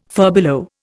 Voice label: "furbelow", Indian